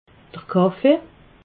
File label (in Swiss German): "kaffe", alsace